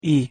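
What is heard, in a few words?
"i", Hungarian pronunciation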